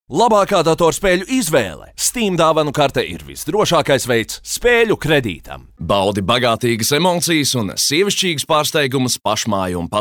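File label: Latvian, male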